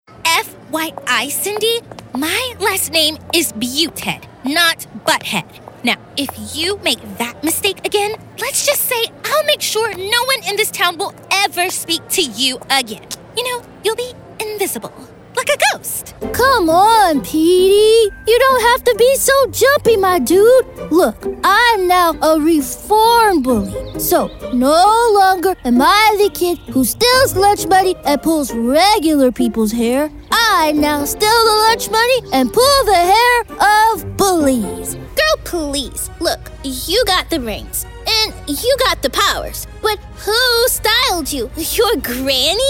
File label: Animation